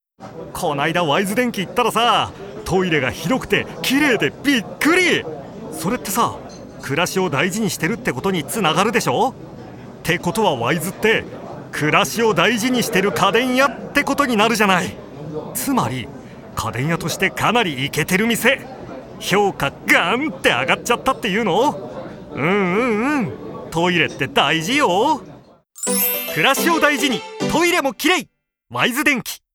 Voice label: ナレーション 台詞調

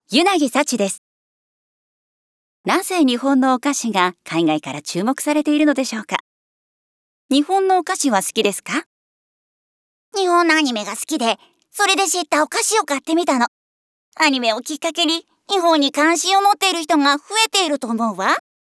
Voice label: VO 女性インタビュアーと少女

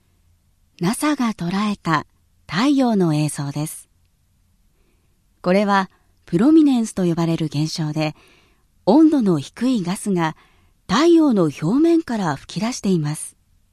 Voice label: 落ち着いた

